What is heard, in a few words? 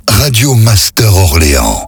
Accap slow; Orléans; Voix off; Radio Master Orléans